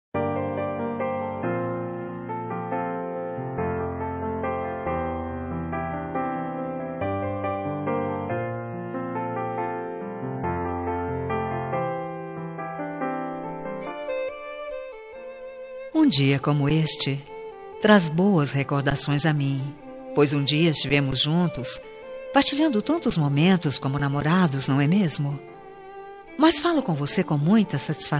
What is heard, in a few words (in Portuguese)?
ex fem; dia dos namorados para ex namorado voz feminina